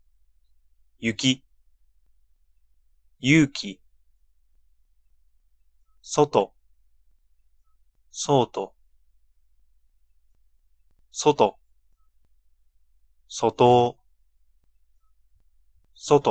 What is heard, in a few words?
Ja pronunciation practice; wikiversity